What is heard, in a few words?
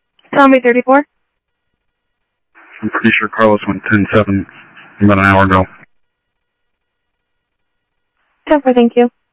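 Sheriff Lake Patrol, scanner audio trunk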